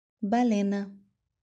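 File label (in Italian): "balena", Italian; marine-mammals; Whale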